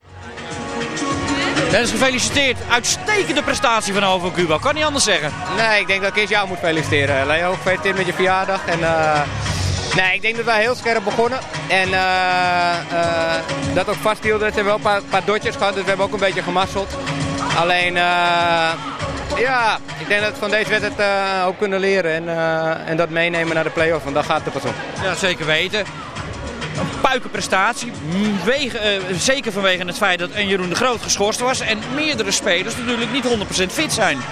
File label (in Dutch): Radio Sport Aktueel; Radio Hoorn; Hovocubo